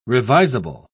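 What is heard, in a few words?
"revisable", British English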